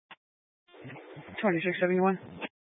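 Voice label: Sheriff Dispatch, scanner audio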